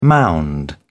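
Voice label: "mound", British English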